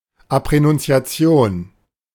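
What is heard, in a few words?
"Abrenuntiation", German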